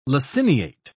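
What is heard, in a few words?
"laciniate", British English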